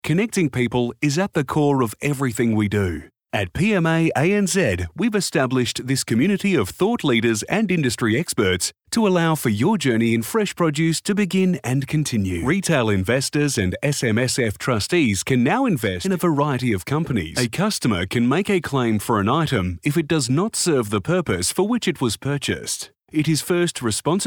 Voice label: Corporate